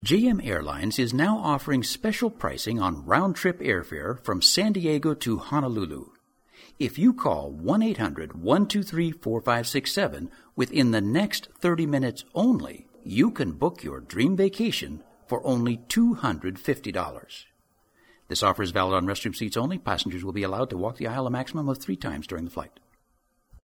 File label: Airline ad